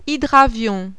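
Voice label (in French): "HYDRAVION", French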